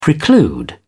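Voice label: "preclude", British English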